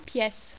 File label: "piece", French